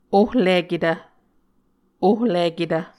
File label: "ohliketv", Muscogee